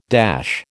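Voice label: "dash", American English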